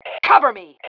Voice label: COVERME, FEM